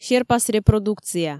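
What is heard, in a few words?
"серпас репродукция", Komi, female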